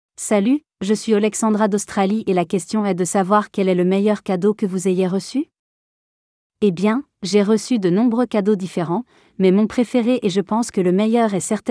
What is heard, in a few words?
Audiobook Voice